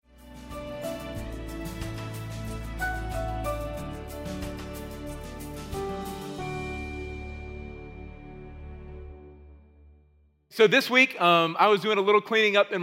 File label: Sermon English